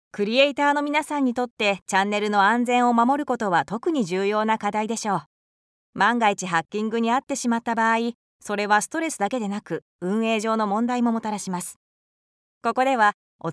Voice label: Voiceover